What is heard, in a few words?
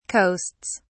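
"coasts", British English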